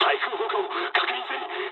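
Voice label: damaged aa mood med, speech japanese; War Thunder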